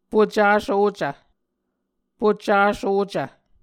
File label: "pucasocv", Muscogee